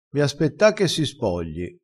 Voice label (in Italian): "spogliassi", crespinese